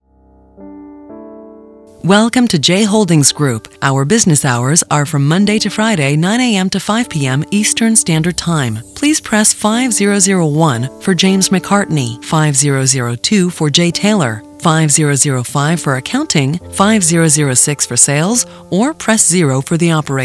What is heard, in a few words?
IVR Greeting Sample